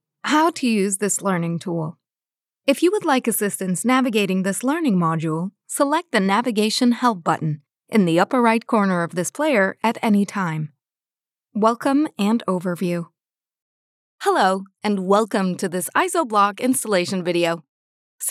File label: eLearning